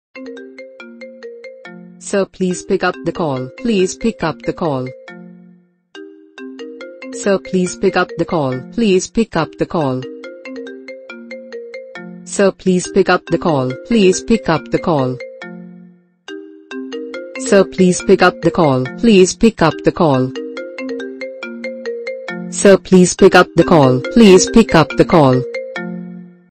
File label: sir please pick up the call ringtone, iphone ringtone